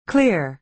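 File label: "clear", American English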